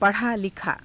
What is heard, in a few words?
"pa_rhaa_likhaa", Hindi